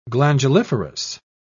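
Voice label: "glanduliferous", English, British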